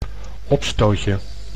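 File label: "opstootje", Dutch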